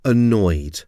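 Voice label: "annoyed", English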